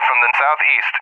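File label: "from the SE", English, USA; DCS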